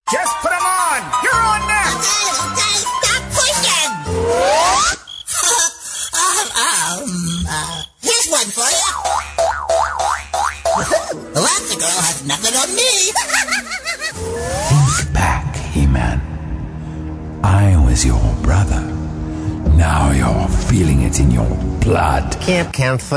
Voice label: male showcase